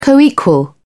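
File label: "coequal", English, British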